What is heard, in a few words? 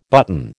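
"button", American English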